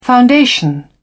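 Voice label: "foundation", English, British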